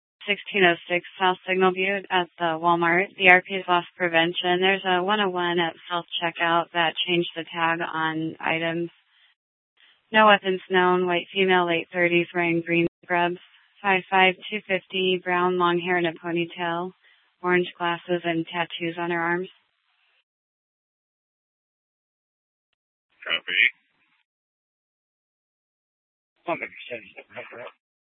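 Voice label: Police Department Dispatch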